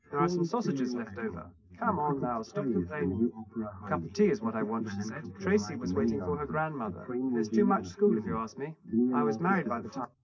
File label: male, 130ms, 4cm